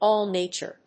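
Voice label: "all nature", English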